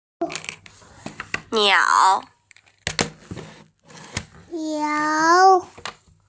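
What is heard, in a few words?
"niao3", Mandarin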